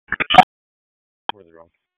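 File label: Police Downtown District Dispatch, p25; Raleigh; wake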